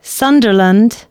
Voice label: announcements, female

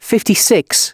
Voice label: announcements male

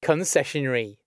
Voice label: Concess, announcements male

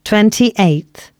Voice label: announcements female

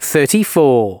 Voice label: announcements male

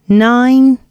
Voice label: announcements female

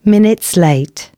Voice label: announcements, female

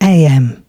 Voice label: announcements, female

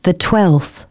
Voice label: announcements female

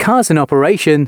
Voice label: announcements, male

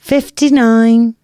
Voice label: announcements female

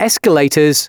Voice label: announcements male

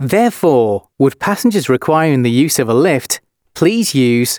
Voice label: announcements male

